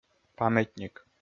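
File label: "памятник", Komi, male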